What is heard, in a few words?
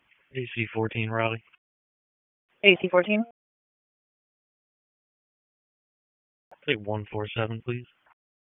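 Police Southwest District Disp, radio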